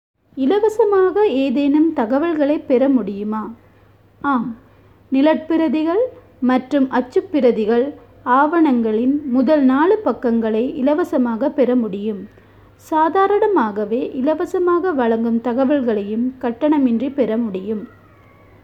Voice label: Tamil